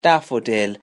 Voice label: "daffodil", Brummy